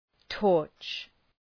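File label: "torch", English; {tɔ:rtʃ}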